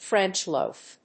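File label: "French loaf", English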